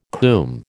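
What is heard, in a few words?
"coomb", American English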